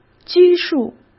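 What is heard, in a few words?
"拘束", Mandarin Chinese; chinese flashcards; hsk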